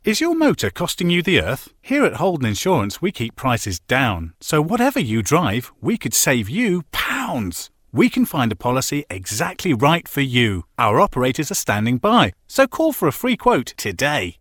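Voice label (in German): UK English Werbung Dynamisch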